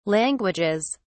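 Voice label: "languages", British English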